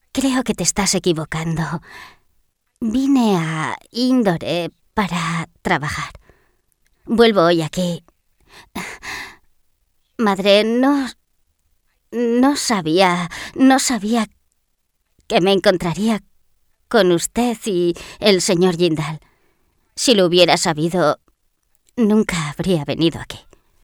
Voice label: locutora spanish voiceover